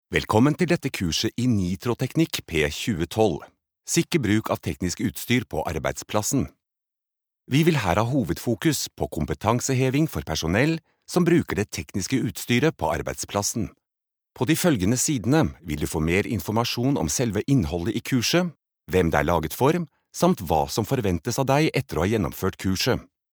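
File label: Norwegian elearning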